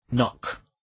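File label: "knock", English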